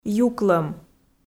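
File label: "юклӧм", Komi, female; kpv audio dict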